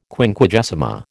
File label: "Quinquagesima", American